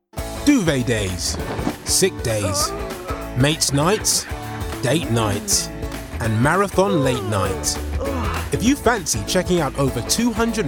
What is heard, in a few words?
male english tv commercial; now